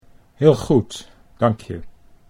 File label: "heel goed dank je", Dutch